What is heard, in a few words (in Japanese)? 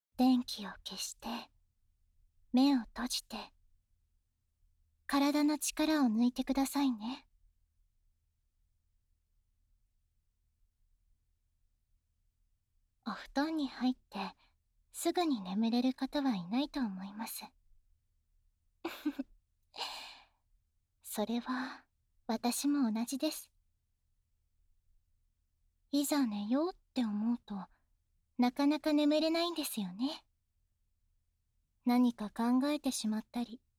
小声と囁き(右耳密着バージョン)